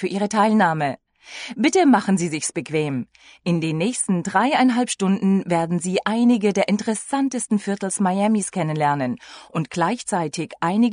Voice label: german tour